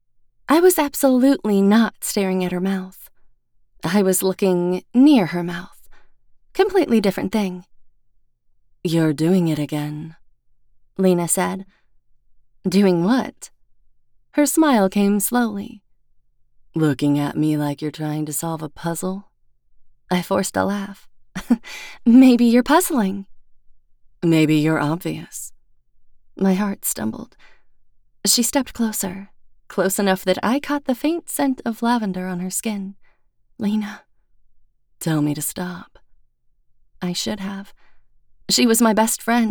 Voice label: Sapphic Romance FF 1st Person POV